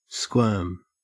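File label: "squirm", Australian English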